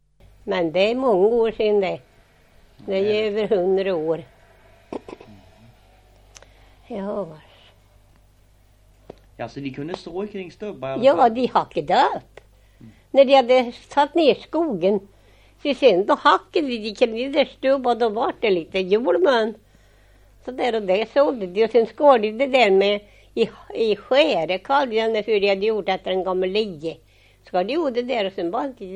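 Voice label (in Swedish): Oppeby Östergötland, Svenska sagor, dialekter; Folkminnen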